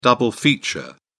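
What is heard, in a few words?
"double feature", British English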